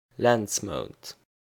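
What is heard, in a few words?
"Lenzmount", Luxembourgish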